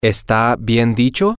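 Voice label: Spanish, male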